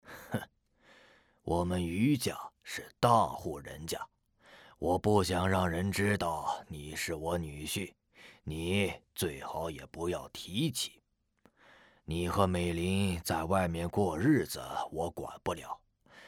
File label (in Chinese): 老年